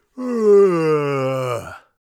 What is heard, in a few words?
sleepy, Warrior Voice; Warrior Voice Pack